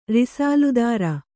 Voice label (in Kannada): "ರಿಸಾಲ್ದಾರ", Kannada